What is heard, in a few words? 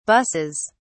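"buses", British English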